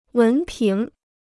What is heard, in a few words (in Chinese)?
"文凭", Chinese